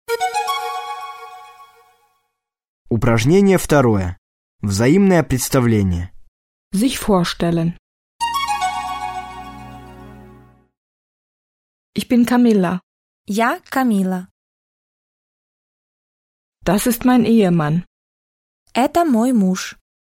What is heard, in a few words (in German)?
Russisch Wortschatz fur Anfanger Horen Lernen